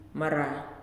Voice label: "Eye", Nalik